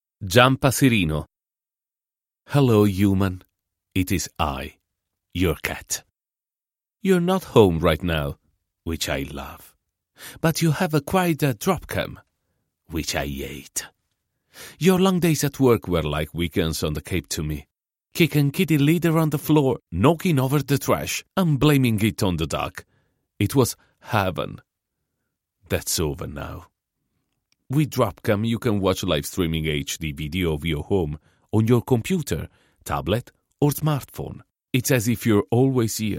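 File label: Italian English, male, commercial; accent of italy; DropCam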